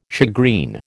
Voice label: "shagreen", American